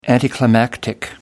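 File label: "anticlimactic", English, British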